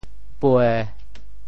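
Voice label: "pue1", Teochew; 潮州; 人坐趖趖，福祸在天顶飞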